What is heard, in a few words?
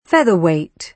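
"featherweight", British English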